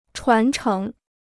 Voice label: "传承", Chinese